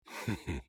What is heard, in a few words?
Emote Positive